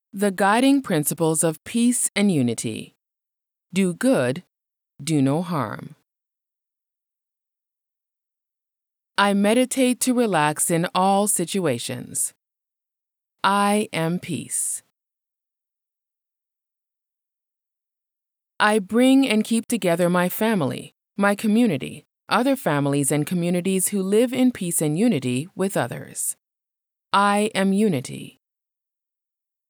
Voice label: Voice Overs English Female; The Guiding Principles of Peace and Unity

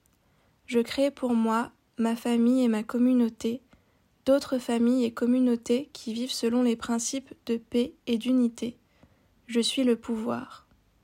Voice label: I Am Power French Female

